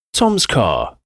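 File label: "toms car", English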